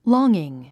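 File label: "longing", English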